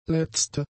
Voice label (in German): "letzte", German; adjectives